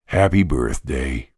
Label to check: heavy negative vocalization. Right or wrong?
Wrong